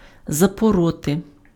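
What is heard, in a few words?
"запороти", Ukrainian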